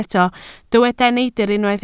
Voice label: "neidr", Welsh

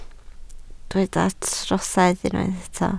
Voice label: "trosedd", Welsh

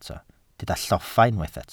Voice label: "lloffa", Welsh

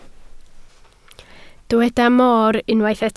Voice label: "mor", Welsh

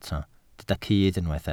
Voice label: "cudd", Welsh

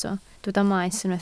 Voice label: "maes", Welsh

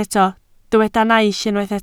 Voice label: "naill", Welsh

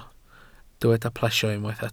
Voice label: "plesio", welsh

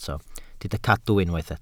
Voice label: "cadw", Welsh

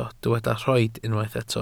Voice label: "rhoid", welsh

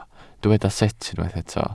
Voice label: "sut", Welsh

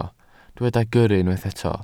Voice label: "gyrru", Welsh

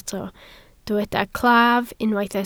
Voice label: "claf", Welsh

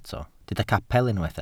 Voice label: "capel", Welsh

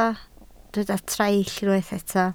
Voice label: "traill", Welsh

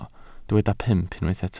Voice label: "pump", Welsh

